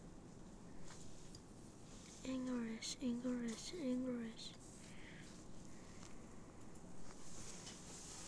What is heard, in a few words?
English